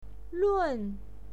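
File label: "lun", pinyin, fourth tone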